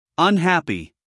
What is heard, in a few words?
"unhappy", us male